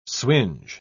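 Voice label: "swinge", British English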